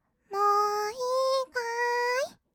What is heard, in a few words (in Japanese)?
"もういいかーい？", kawaii voice; ASMR; 色々なワンショット